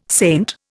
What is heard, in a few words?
"saint", Indian